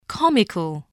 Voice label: "comical", voice

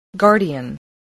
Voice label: "guardian", spelling

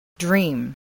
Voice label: "dream", English

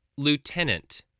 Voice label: "lieutenant", spelling

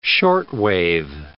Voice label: "shortwave", English

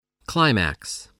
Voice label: "climax", voice

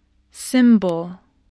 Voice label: "symbol", English

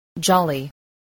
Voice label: "jolly", English

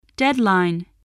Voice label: "deadline", English

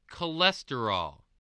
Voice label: "cholesterol", English, voice

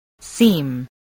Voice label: "seem", english spelling

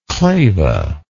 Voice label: "clava", English

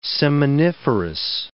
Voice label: "seminiferous", English

